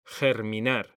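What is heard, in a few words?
"germinar", Spanish, male; mekanta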